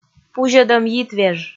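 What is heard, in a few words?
"пузьӧдӧм йитвеж", Komi, female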